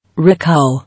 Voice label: "Recall", English